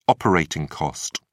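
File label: "operating cost", British English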